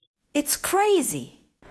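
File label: Voice Acting Spanish Female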